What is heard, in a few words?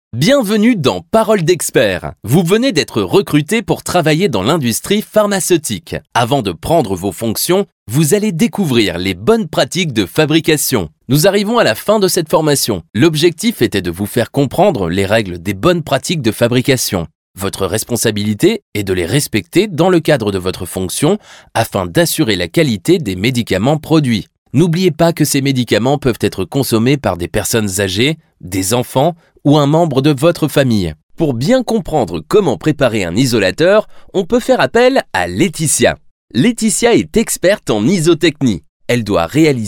e-learning narration; imt; training